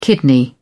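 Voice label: "kidney", British English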